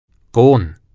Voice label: "gon", Middle English